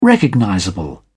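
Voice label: "recognizable", British English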